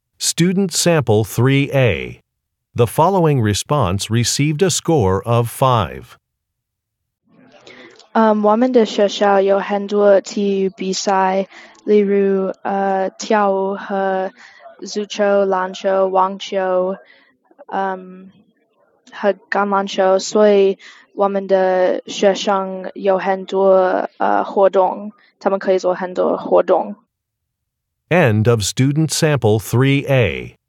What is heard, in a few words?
chinese conversation